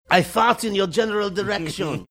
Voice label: french guard